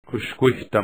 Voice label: surprised